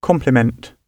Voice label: "complement", gb